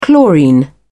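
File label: "chlorine", English, British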